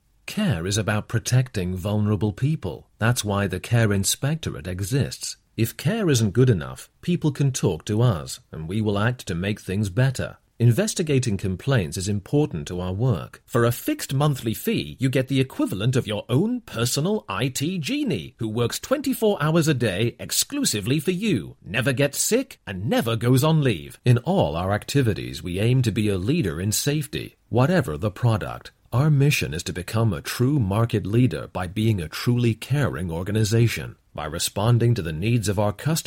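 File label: English UK, Corporate; GoLocalise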